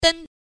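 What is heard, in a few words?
"den" first tone, Mandarin Chinese pinyin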